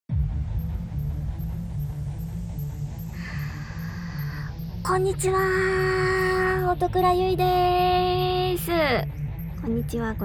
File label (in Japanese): フリートーク, ASMR; KU100; ヒプノティックレ〇プ -秘密の饗宴(サバト)で淫魔(サキュバス)に犯される夜